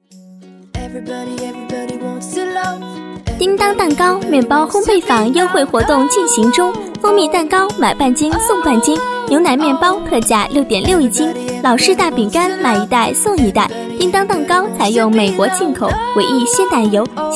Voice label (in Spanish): Locutor niña chino